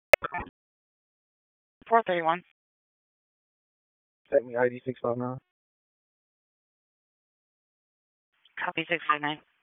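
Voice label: Police Southeast District Dispatch; Raleigh; wake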